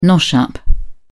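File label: "nosh-up", British English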